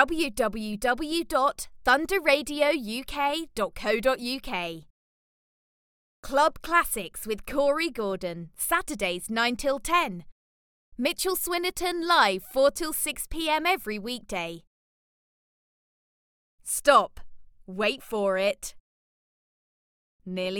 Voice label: Radio Tag; The Takeover